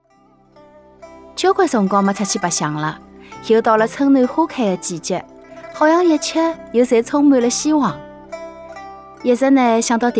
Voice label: 沪房产广告温暖

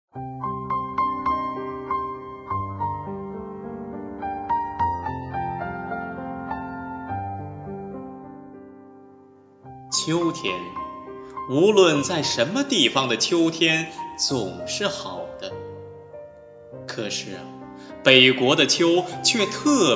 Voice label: 诗朗诵

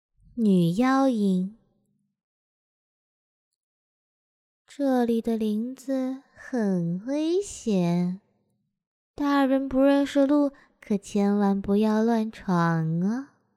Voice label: 女妖音

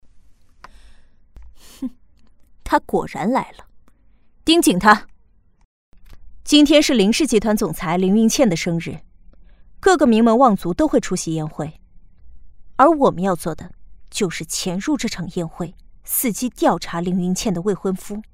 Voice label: 角色御姐霸气冷静高智商

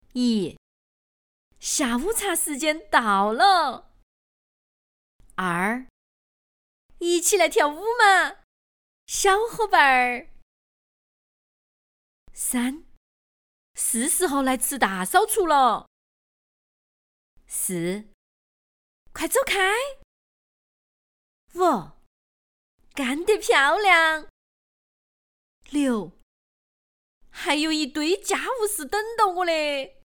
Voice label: 川话; 下午茶时间到了

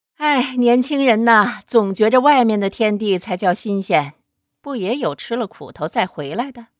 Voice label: 大妈音

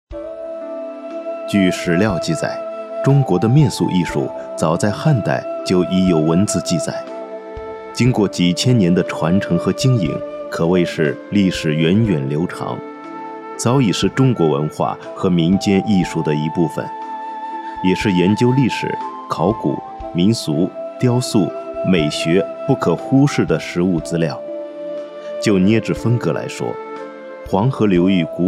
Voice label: 纪录片解说

